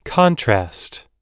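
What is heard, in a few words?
"contrast", English; oxford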